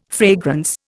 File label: "fragrance", Indian